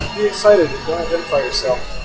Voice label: Enhanced Speech F16 Noise LogMMSE 0dB SNR